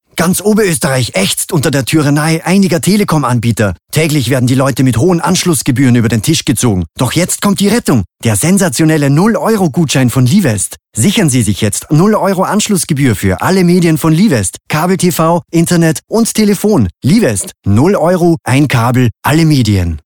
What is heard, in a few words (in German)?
Werbung; Liwest